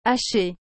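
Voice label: "hachee", French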